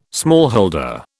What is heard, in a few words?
"smallholder", British